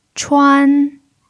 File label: "chuan1", chinese